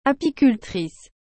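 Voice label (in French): "apicultrice", French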